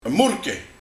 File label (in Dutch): "Moereke", Waterketel; Altijd werkend bezig zijn